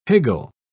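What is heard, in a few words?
"higgle", English, British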